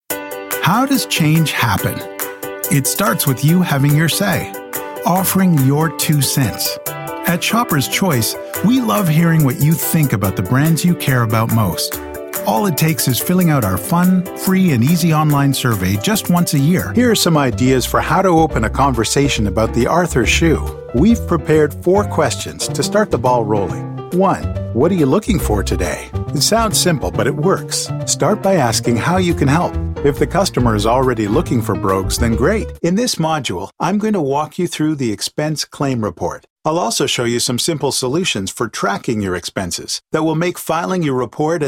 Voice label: elearning